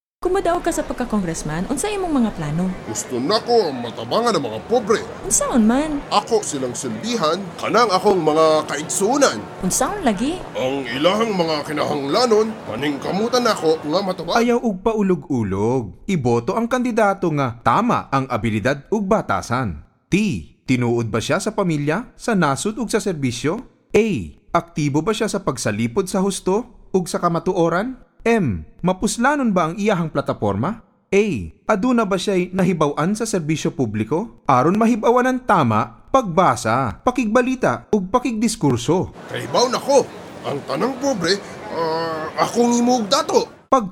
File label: Radio Ad, Cebuano; Congressman; mediavoteradvocacy